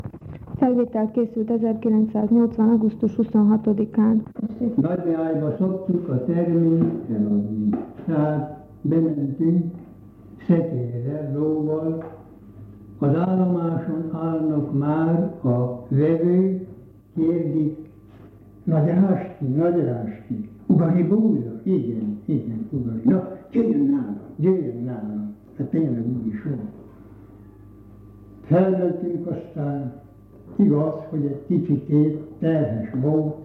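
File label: dialect collections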